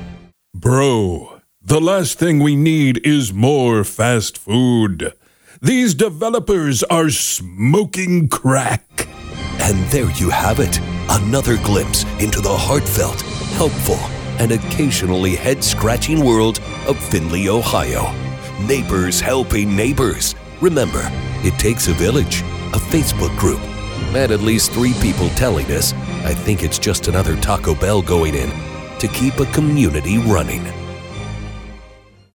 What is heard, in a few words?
Dramatic reading; findlayohio